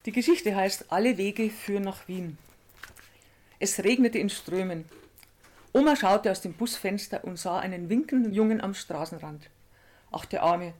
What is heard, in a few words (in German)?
Lesung